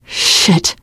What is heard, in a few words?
reaction negative, teen girl; survivor voice